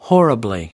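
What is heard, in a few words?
"horribly", American English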